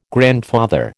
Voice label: "grandfather", American